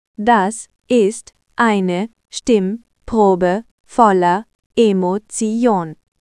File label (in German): Roboter, stimmen; moods